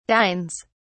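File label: "dines", British English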